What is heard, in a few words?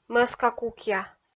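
"мӧска-кукъя", Komi-Zyrian, female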